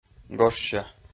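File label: "гожся", Komi, male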